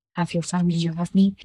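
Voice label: Voice Acting es, female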